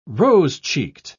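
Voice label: "rose-cheeked", British English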